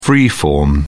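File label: "free form", British English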